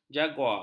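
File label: "jaguar", Malay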